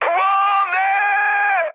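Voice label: Polish on fire mood high; speech; War Thunder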